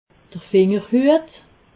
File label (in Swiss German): "fingerhuet", alsace